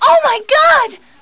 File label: girl oh my god